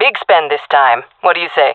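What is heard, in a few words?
request spend, female voice; player vo jungle fem; Counter Strike Model Pack; CS:GO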